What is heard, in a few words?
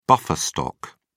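"buffer stock", British English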